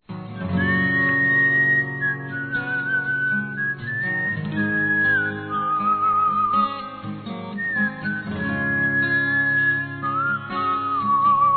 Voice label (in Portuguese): Bodas de Pérola Femin, Telemensagens; 30 Anos